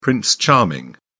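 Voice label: "prince charming", British English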